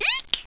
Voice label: "eek", sultry, pain